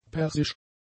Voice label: "persisch", German; adjectives